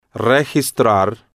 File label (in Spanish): "registrar" Spanish